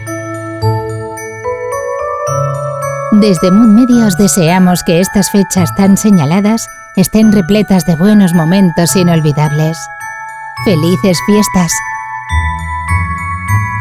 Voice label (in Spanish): XMAS Message, ES